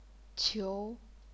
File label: "qiu2", chinese pinyin